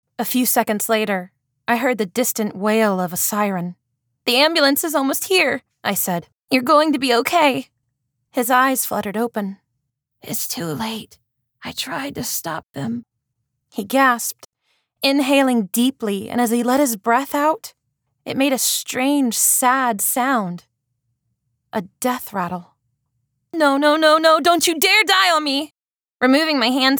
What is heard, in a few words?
mystery romance 1st person m f dialogue action